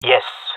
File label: affirmation, jungle male; vo; agents; Source Model Packs; Counter Strike Model Pack; CS2